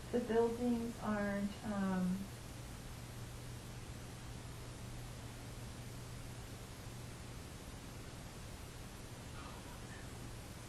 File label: man voice EVP